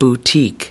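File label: "boutique", US English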